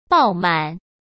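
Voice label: "爆满", Mandarin Chinese; hsk; chinese-flashcards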